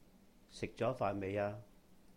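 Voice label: "Sihk-jó-faahn meih-a?", Cantonese; Have you eaten yet?; 食咗飯未呀？; sik6zo2faan6mei6aa3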